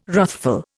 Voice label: "wrathful", Indian